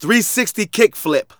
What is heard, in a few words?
Narration